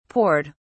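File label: "poured", British English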